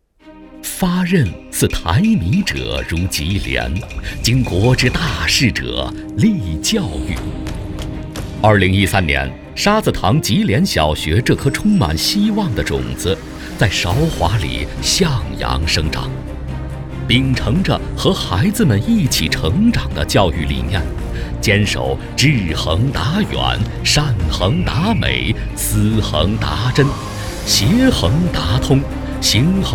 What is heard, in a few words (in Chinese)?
男 教育 小学宣传